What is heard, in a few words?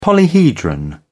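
"polyhedron", British English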